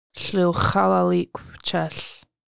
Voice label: We will color ɬux̌alalikʷ cəɬ, Lushootseed; cedar

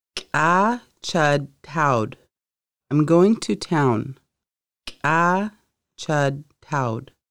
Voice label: Im going to town, conversational Lushootseed

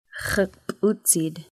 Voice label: knee, Lushootseed

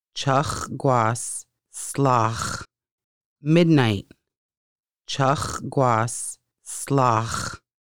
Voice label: midnight, Lushootseed; Conversational Lushootseed: Lessons 1-5

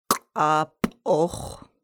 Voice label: hazelnut, Lushootseed

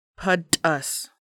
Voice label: "winter", Lushootseed

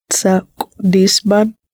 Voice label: "fork", Lushootseed

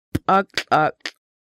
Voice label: zero, Lushootseed

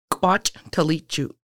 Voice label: Shark, Lushootseed

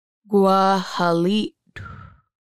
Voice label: Blessing "gʷəhəliʔtxʷ", Lushootseed; first salmon ceremony

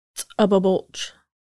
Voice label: Berry Basket, Lushootseed

